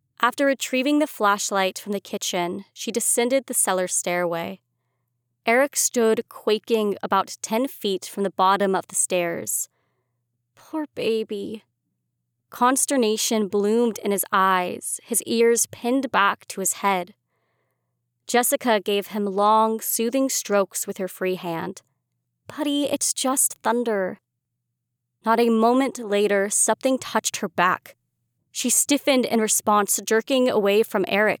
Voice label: YA Sample, voice over; PhantomRealm